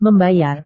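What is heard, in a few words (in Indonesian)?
"membayar", Indonesian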